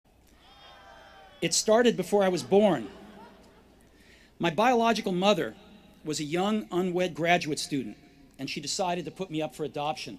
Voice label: Commencement Address; Steve Jobs; 2005; Stanford